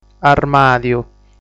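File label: "Armàdiu", Sardinian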